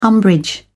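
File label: "umbrage", British English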